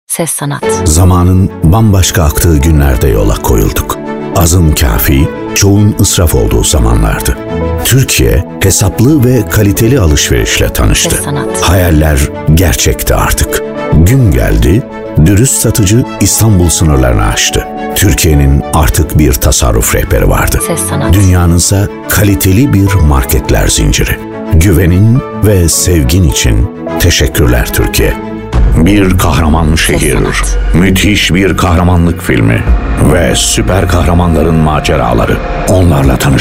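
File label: Turkish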